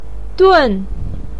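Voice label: "dun" fourth tone, Mandarin Chinese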